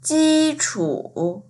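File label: "ji1chu3" Mandarin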